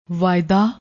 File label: "vaida", Punjabi; ਵਾਇਦਾ